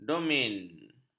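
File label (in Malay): "domain", Malay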